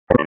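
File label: Dispatch, p25; WSO DISP 1; wake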